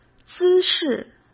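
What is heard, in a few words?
"姿势", Mandarin Chinese; chinese-flashcards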